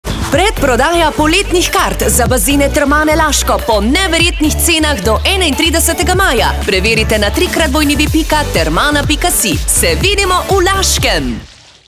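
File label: Slovenian, female, commercial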